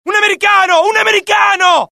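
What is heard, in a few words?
alert, Italian; mohaa; dialogue; sighted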